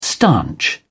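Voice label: "stanch", British English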